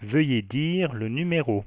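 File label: please say a number, French, Voice Sounds; Samsung SGH-i310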